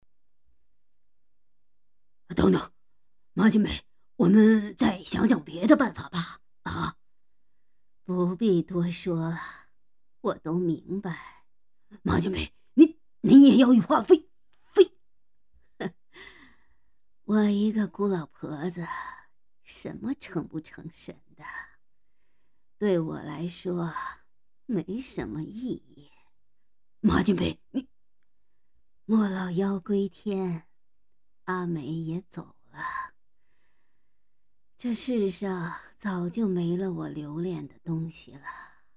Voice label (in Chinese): 老头伪音和老太婆音